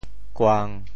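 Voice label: "kuang1", Teochew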